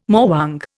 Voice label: "morwong", Indian